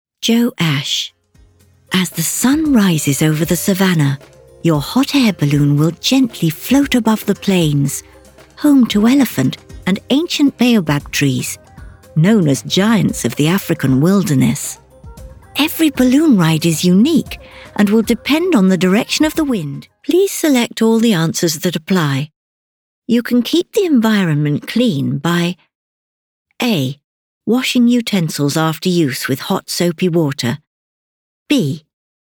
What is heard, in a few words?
corporate VOICE